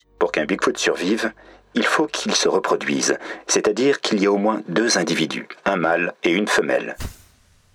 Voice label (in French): dialogue professeur téléphone; Big Foot; Vie Junior